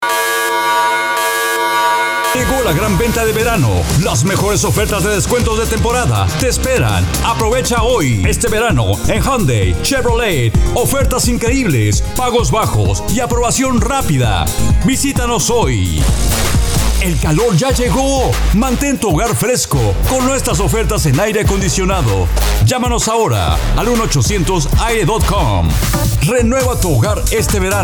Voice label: Male